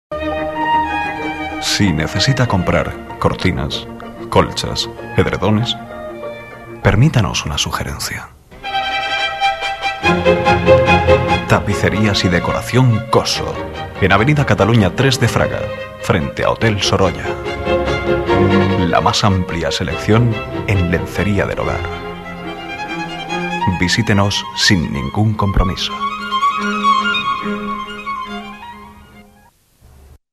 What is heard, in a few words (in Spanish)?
Publicidad Radio voces comerciales musica; Tapicerias y decoracion Coso